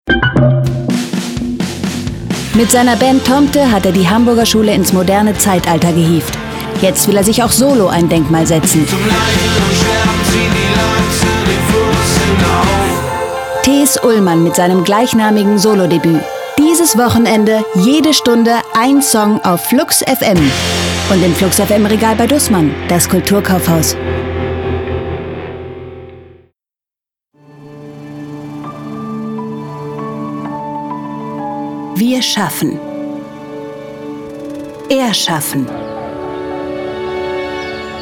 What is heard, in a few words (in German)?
trailer, deutsch frauen; sprecher